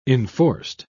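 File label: "enforced", British English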